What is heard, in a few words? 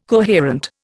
"coherent", Indian